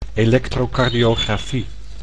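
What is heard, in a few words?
"electrocardiografie", Dutch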